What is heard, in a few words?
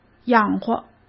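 "养活", Mandarin Chinese; chinese flashcards; hsk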